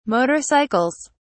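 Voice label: "motorcycles", British English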